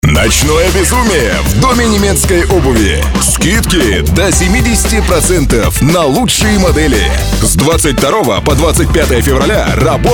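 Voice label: cheerful loud reading; petrushka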